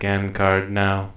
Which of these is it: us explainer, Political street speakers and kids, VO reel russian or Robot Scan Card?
Robot Scan Card